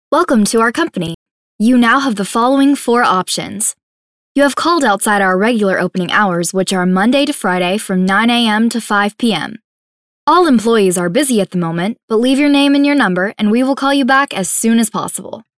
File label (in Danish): Uformel kvinde eng